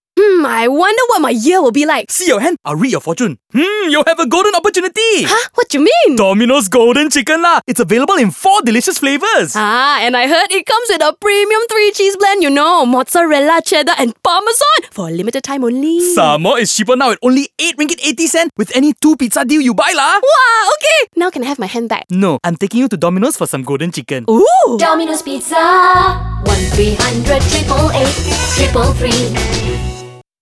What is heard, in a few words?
ENG; DOMINOS PIZZA CHICKEN IN HAND